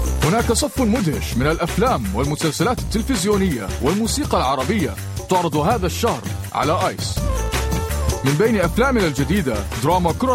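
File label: Ara, Arabic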